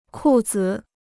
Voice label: "裤子", Chinese

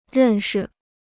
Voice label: "认识", Chinese

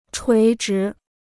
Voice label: "垂直", Chinese

